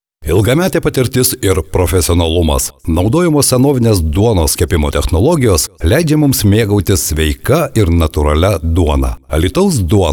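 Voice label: Lithuanian male commercial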